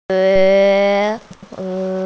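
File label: "ju3", Mandarin Chinese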